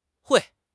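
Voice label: "hui4", Mandarin Chinese; HSK1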